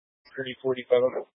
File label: Police Dispatch, scanner; Police 1 Dispatch